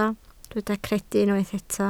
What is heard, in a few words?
"credu", Welsh